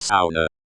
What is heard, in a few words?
"sauna" unadapted; ˈsaʊ̯.nə